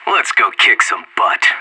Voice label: radio lock n load; anarchist